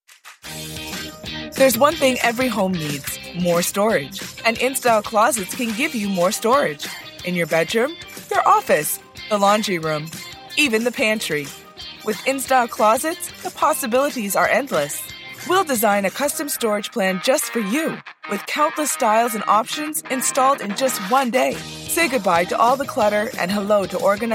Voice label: female English neutral North American accent TV; commercial